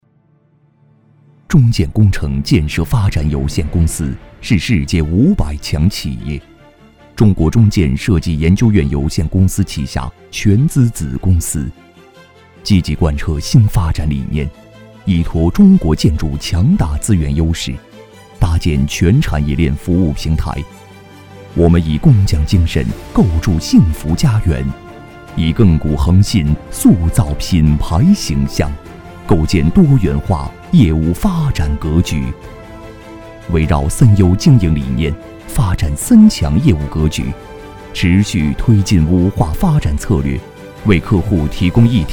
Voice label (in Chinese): 男 浑厚大气; 中建